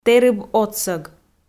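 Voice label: "тэрыб отсӧг", Komi, female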